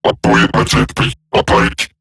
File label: Player Spotted, Robot Large; Warframe; Eximus Corpus Robotic Large; Battle Barks